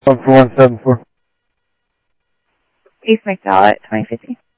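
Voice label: Mesa police department, scanner audio trunk; Mesa Simulcast; MESA PD 3 Red Mt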